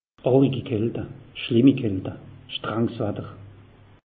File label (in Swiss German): "arig kalte", alsace; meteo